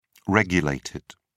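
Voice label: "regulated", British English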